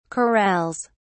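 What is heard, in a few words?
"corrals", British English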